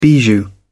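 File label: "bijou", British English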